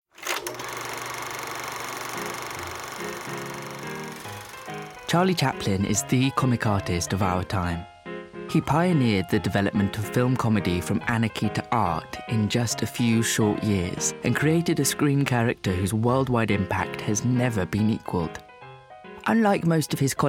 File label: Documentary; Natural Accent: Neutral